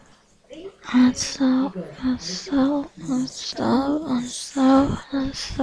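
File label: "also", spoken word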